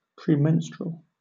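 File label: "premenstrual", British English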